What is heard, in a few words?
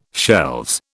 "shelves", British